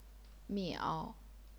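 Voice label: "miao", third tone, chinese pinyin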